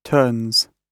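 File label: "turns", British English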